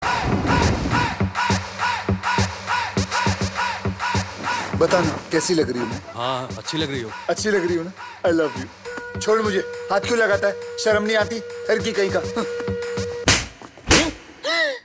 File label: Dialogue Ringtone; Laxmmi Bomb; Akshay Kumar; Ladki Kayika